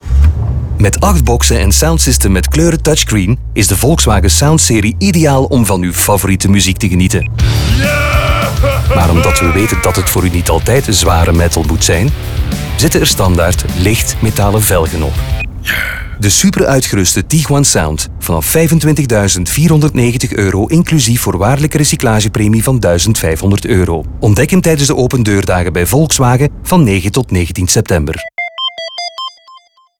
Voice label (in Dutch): radio; vw sound serie spot 5; tiguan nl; volkswagen en ddb knikken mee met de nieuwe sound serie